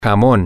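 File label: ham, Spanish, male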